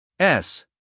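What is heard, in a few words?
"s", US English, male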